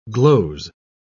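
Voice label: "gloze", English, British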